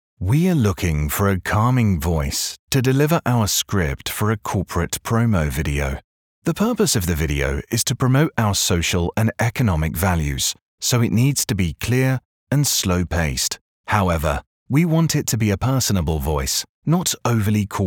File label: english voice over corporate promotion